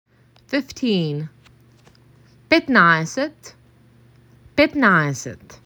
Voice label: MAC 6, macedonian phrasebook

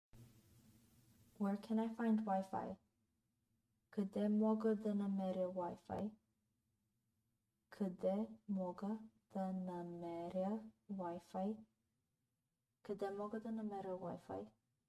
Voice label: BGN Where can I find Wi Fi, Bulgarian; the talking bulgarian phrasebook

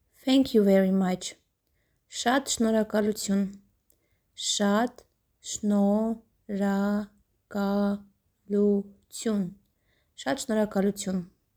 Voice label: Thank you very much Armenian Shat shnorhakalutyun

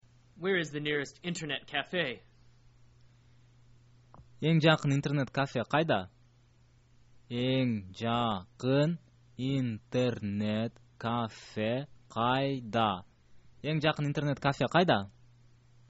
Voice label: internet cafe, kyrgyz

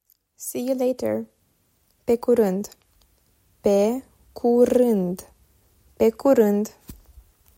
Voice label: See you later, romanian

